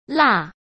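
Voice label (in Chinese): "蜡", Mandarin Chinese; hsk; chinese-flashcards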